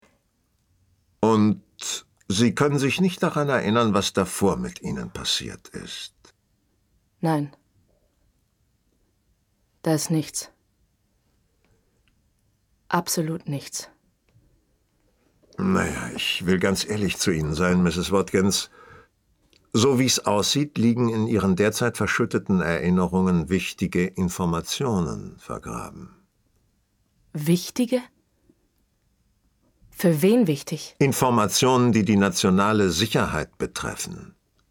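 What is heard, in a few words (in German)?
hörspiel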